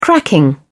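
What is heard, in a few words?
"cracking", British English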